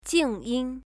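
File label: "jingyin", Chinese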